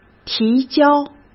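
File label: "提交", Mandarin Chinese; hsk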